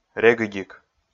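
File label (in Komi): "регыдик", Komi, male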